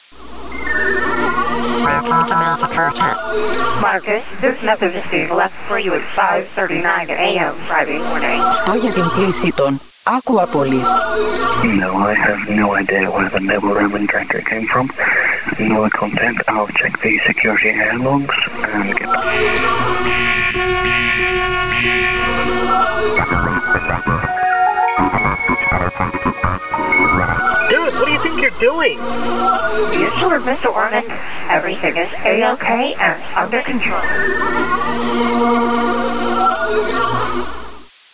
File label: phonecall